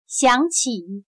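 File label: "想起", Chinese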